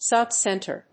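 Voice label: "sub center", English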